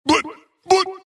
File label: wraith death; dota; skeleton king